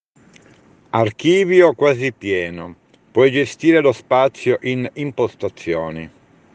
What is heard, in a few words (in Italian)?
suono della voce interiore